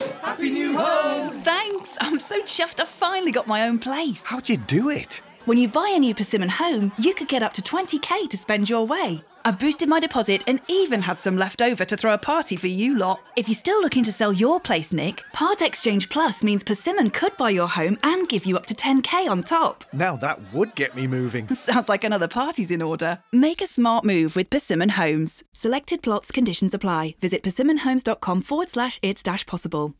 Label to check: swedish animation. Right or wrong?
Wrong